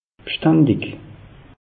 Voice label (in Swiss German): "bstandig", alsace; meteo